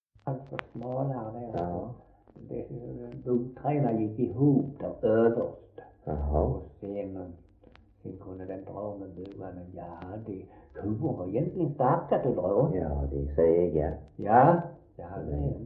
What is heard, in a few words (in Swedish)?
Svensköp SK, dialekter; Folkminnen